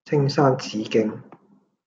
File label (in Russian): Голоса, Гонконгский